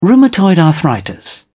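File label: "rheumatoid arthritis", British English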